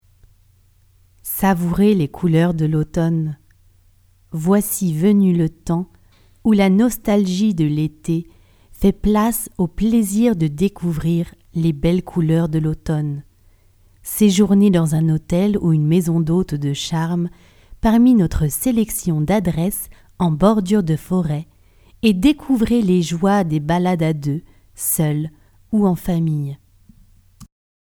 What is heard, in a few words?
Voice French